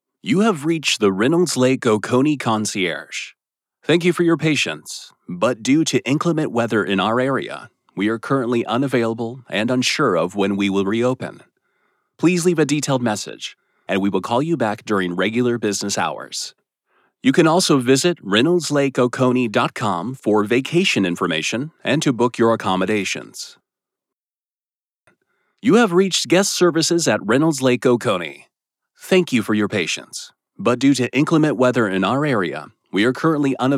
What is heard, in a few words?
IVR